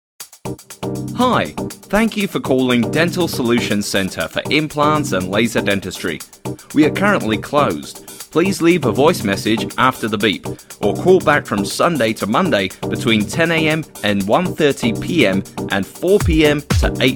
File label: voicemail greeting, Australia; english; after hours greeting